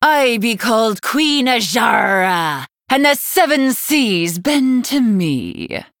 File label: Female Night Elf